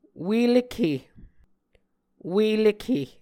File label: "ue lekhe", Muscogee